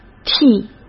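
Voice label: "替", Mandarin Chinese; hsk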